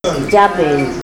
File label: japirn lizard sound